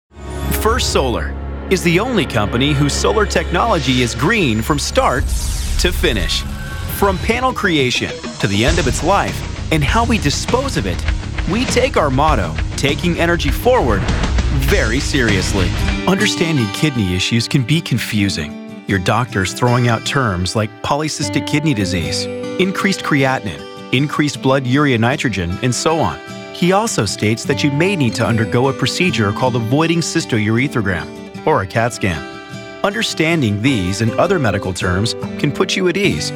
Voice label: narration industrial